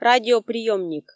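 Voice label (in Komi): "радиоприемник", Komi, female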